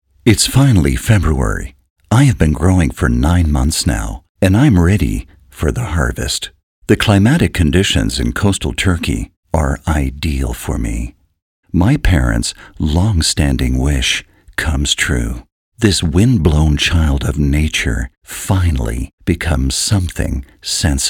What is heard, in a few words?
podcast; mey